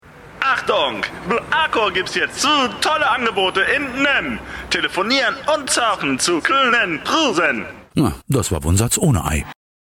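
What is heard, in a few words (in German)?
szene, sprecher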